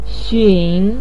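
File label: "xun", Mandarin Chinese syllable, third tone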